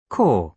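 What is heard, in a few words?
"core", British English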